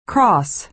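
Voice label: "cross" American English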